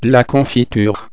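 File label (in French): "confiture", French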